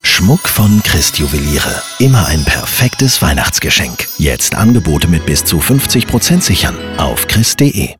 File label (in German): Funkspot Reminder; bunch sound; Berlin; Funkspotproduktion